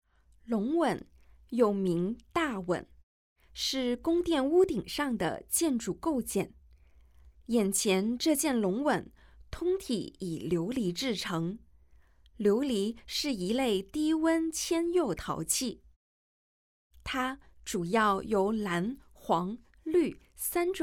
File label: Mandarin; audio guide